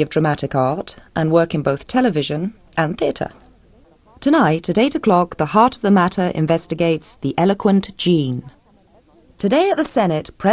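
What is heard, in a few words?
Polish, English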